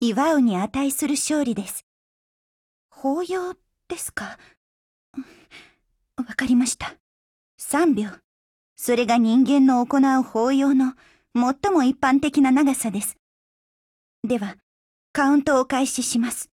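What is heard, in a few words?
WIN Japanese; AK74M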